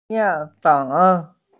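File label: "flour", Mandarin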